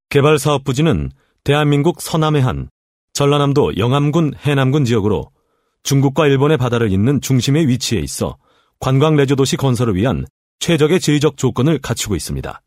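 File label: Korean, male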